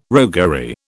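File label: "roguery", British English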